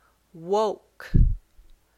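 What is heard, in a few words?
"woke", English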